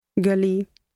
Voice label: street, punjabi; English: street

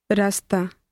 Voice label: way path, punjabi; English: way/ path

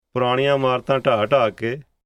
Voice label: buildings phrase, punjabi; English: buildings

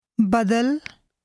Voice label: change, punjabi; English: change

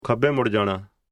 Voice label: leftside phrase, punjabi; English: left side

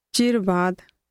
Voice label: after a long time, punjabi

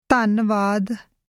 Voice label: thanks, punjabi

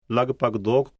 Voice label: approximately phrase, punjabi; English: approximately

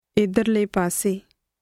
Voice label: this side, punjabi

